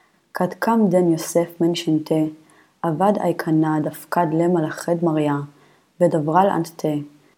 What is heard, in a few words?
Matthew Reading Practice, Aramaic